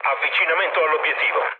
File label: near waypoint mood low, speech it; War Thunder